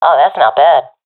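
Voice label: compliment, professional female; vo; Counter Strike Model Pack; CS:GO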